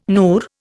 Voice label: "NUR", Indian